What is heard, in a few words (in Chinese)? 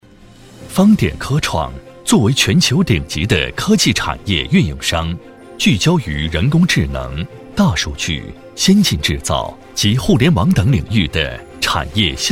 男 广告宣传 科技感; 方点科创